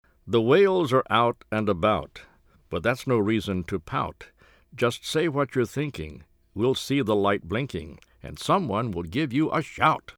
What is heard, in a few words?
Answering Machine Message; Carl Kassel; Wales